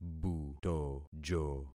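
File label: "butojo", concatenated syllables; Affixing experiment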